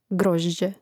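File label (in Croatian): "grožđe", Hrvatski; mreznik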